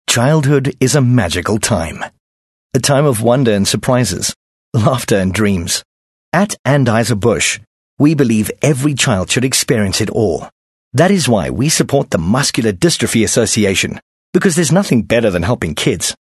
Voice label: English Conversational